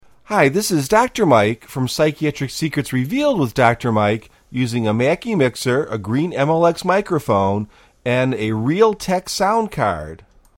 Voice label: Realtek Mackie MXL